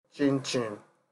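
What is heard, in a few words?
"chinchin", Nigerian Pidgin, male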